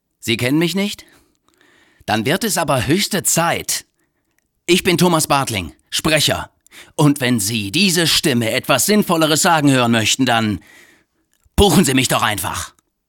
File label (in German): Stimmung aggressiv